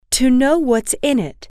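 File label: to know whats in it, female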